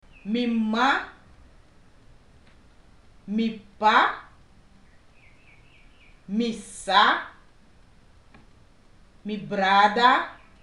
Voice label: family, Sranan; PeaceCorps

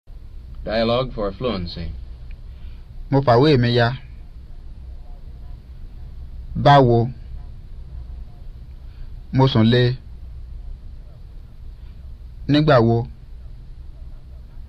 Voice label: Yoruba; FSI; Basic

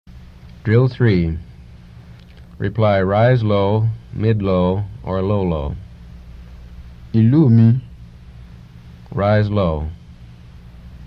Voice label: Yoruba; FSI; Basic

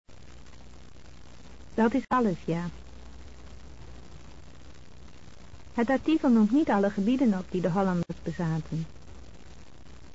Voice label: Dutch; DLI

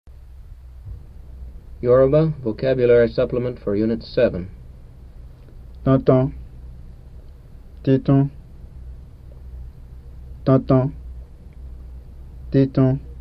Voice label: Yoruba; FSI; Basic

